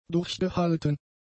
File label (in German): "durchgehalten", German; adjectives